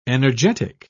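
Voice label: "energetic", English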